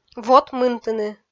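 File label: "вот мынтыны", Komi, female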